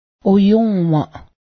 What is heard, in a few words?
intestines, Cayuga; oyǫ́:waˀ